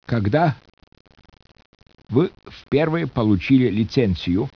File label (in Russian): SPEECH, RUSSIAN